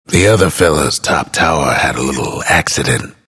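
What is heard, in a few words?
announcer tower fall enemy top; Dota 2; bastion announcer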